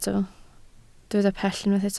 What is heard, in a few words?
"pell", Welsh